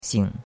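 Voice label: "xing3", Mandarin Chinese; Cours de Chinois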